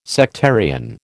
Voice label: "sectarian", American

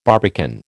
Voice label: "barbican", American

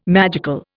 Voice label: "magical", Indian

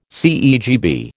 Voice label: "CEGB", American

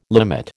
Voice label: "limit", American

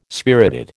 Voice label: "spirited", American

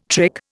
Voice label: "trick", Indian

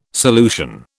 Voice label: "solution", British

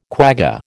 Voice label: "quagga", American

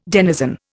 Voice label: "denizen", Indian

